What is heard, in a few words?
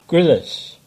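"Grillis" English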